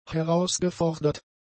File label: "herausgefordert", German; adjectives